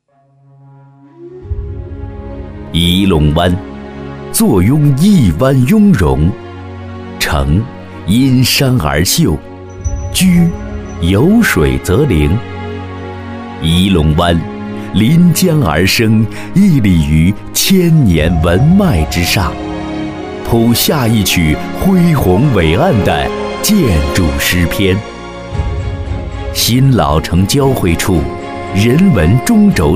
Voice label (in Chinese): 专题片大气稳重; 范颐珑湾项目